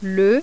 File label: french